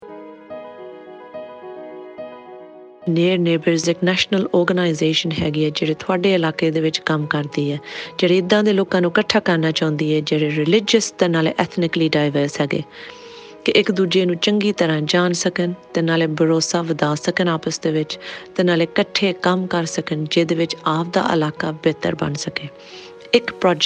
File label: Punjabi